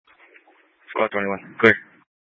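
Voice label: Fire Dispatch, scanner audio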